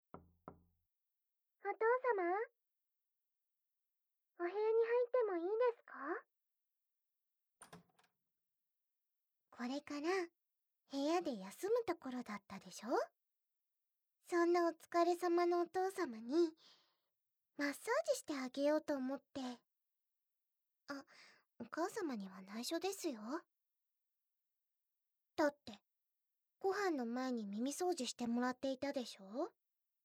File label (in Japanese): 娘メイドの足腰マッサージ; 巻き返し！; クール妻＆娘メイドのWお仕置き